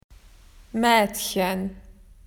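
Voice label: "girl", Australian English; PictureDictionary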